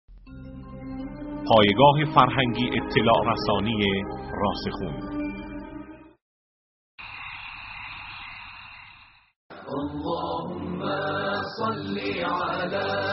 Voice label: تلاوت سوره مبارکه اسرا; محمود شحات انور; آیه 9

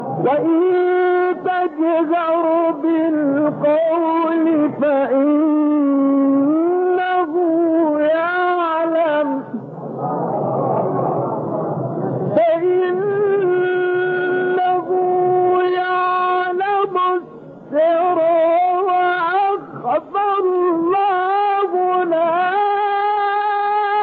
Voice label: تلاوت فرازهایی از سوره طه; استاد شعبان صیاد

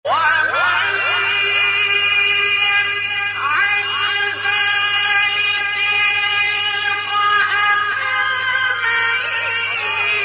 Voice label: تلاوت آیه 68 69 سوره فرقان; استاد عبدالباسط

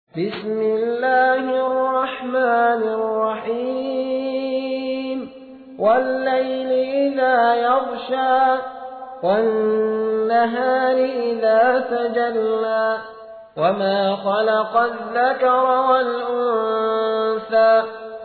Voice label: ترتیل; سوره لیل

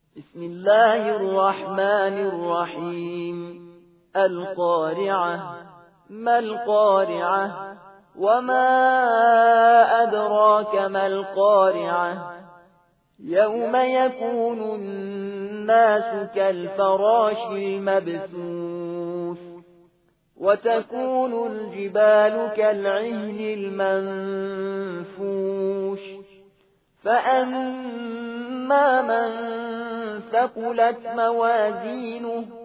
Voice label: ترتیل; سوره قارعه

